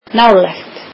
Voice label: icelandic near

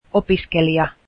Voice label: student, finnish

